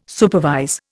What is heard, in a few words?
"supervise", Indian